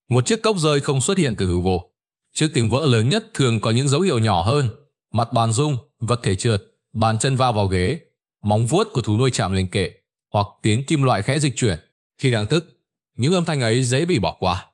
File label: narration